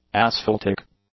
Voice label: "asphaltic", English; adjectives

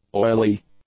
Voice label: "oily", english; adjectives

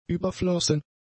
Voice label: "überflossen", German; adjectives

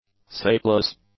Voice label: "sapless", English; adjectives

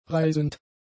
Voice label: "reisend", German; adjectives

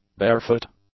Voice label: "barefoot", English; adjectives

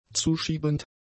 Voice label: "zuschiebend", German; adjectives

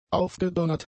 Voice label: "aufgedonnert", German; adjectives

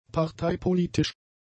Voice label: "parteipolitisch", German; adjectives

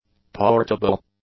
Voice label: "portable", english; adjectives

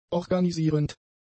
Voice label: "organisierend", German; adjectives

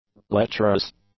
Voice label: "lecherous", english; adjectives

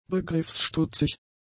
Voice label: "begriffsstutzig", German; adjectives

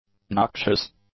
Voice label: "noxious", english; adjectives

